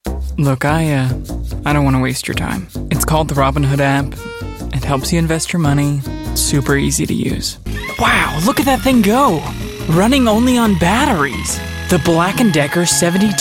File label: Commercial, voice-over